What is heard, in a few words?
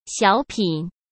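"小品", Mandarin Chinese; chinese flashcards; hsk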